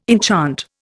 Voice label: "enchant", Indian

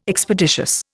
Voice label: "Expeditious", Indian

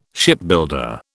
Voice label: "Shipbuilder", British

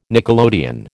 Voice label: "nickelodeon", American

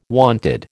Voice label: "wonted", American

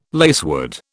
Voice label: "lacewood", British

